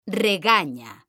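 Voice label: "regaña", Spanish, female; mekanta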